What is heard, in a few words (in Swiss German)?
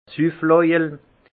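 "sufflojel", alsace Haut-Rhin; vin; tonnelet à boire soûlard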